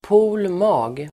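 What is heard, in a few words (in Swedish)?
"pol mag", Swedish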